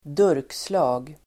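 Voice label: "durkslag", Swedish; Uttal: [²d'ur:ksla:g]; colander; grövre sil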